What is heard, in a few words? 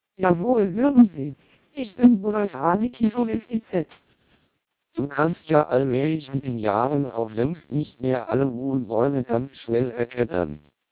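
twelp 300 German, vocoders lowrate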